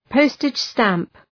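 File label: "postage stamp", English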